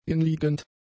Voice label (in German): "inliegend", German; adjectives